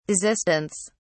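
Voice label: "desistance", British English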